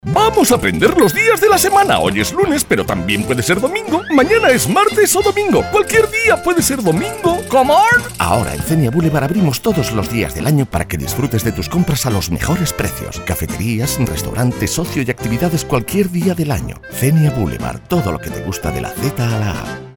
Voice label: Radio